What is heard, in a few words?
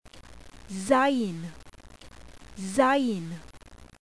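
"zain", Hebrew letter; qabalah; alfabeto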